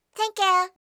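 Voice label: "thank you", English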